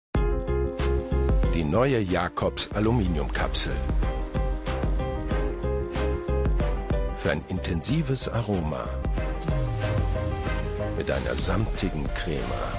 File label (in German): Werbung Kapseln; Jacobs